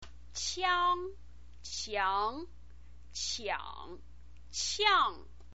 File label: "qiang", chinese pinyin